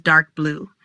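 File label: "Darkblue", pronunciation; colors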